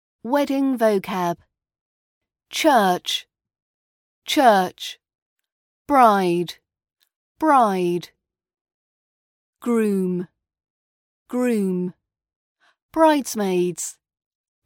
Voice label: wedding vocab rp british accent pronunciation practice